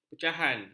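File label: "pecahan", Malay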